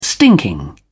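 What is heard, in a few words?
"stinking", English, British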